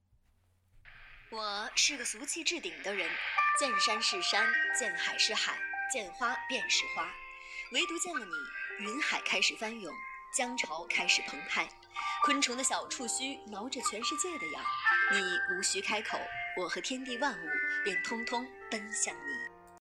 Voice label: 温暖治愈

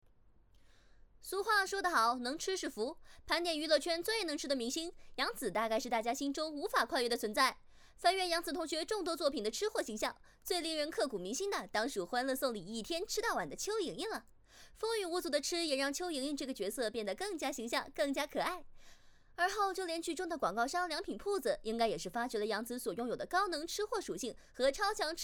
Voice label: 娱乐 报道类

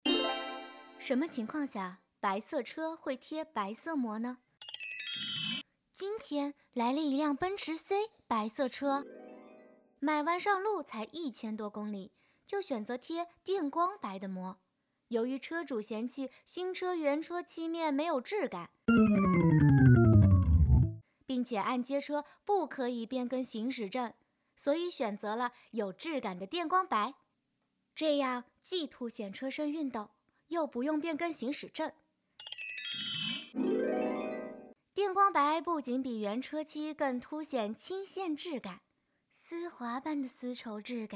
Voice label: 广告

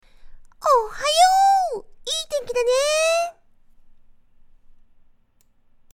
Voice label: 幼童样音

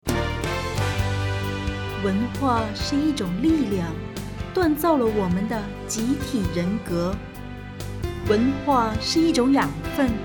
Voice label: 专题片配音

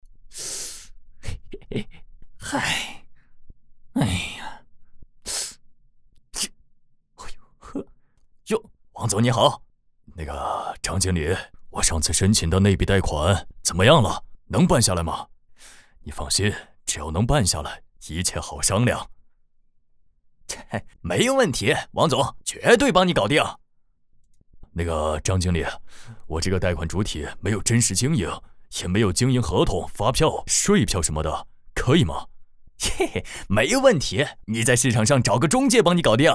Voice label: 动画 分饰多角色; 农行警示小片

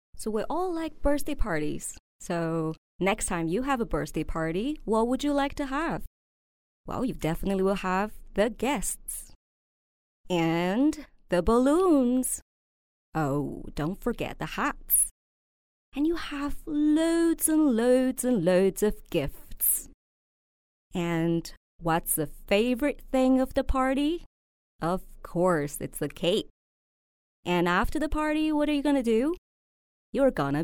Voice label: 美式绘本

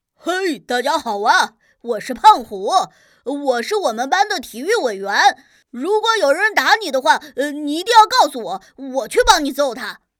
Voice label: 男童; 声展男童4胖虎

